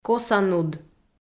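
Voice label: "коса нуд", Komi, female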